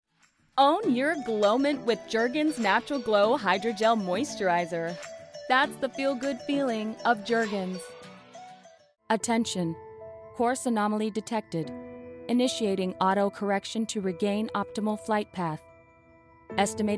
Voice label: VO DEMO